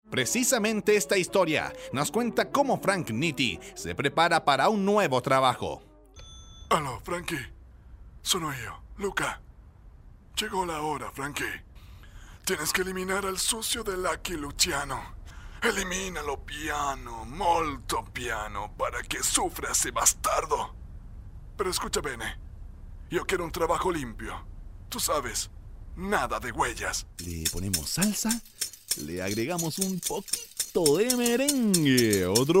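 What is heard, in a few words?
Spanish Latin American Chilean Characters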